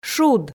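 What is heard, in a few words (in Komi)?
"шуд", Komi, female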